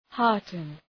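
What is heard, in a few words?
"hearten", English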